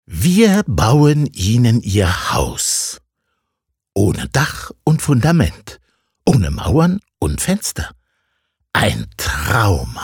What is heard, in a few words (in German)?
Werbung, Dynamisch